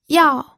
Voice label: "yao4", pinyin

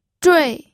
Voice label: "zhui4", pinyin

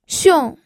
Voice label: "xiong4", pinyin

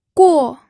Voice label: "guo4", pinyin audio

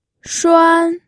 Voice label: "shuan1", pinyin

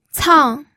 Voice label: "cang4", pinyin

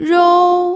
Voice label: "rou1", pinyin

